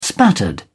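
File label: "spattered", British English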